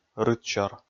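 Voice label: "рытсёр", Komi, male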